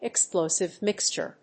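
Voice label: "explosive mixture", English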